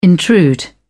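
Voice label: "intrude", English, uk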